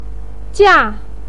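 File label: "jia", Mandarin pinyin, fourth tone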